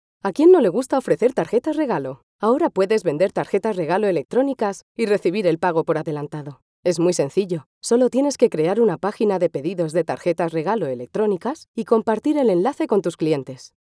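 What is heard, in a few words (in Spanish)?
e-learning, Spain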